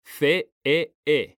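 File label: CEE, Spanish, male; mekanta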